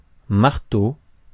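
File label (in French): "marteau", French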